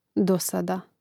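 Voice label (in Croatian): "dosada", Hrvatski; mreznik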